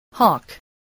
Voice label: "hawk", voice